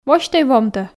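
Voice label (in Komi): "восьтӧй вомтӧ", Komi, female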